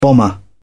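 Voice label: "bomber", British English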